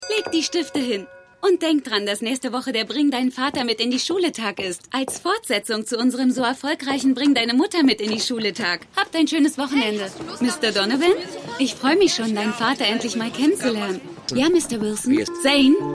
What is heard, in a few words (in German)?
Lehrerin; Stimmproben; Eureka